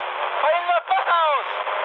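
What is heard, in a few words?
German damaged water mood high, speech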